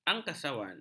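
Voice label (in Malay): "angkasawan", Malay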